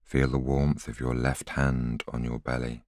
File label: voiceover